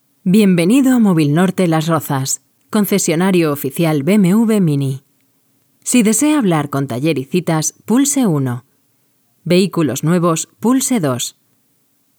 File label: Spanish Female; European Voices